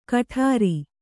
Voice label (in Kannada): "ಕಠಾರಿ", Kannada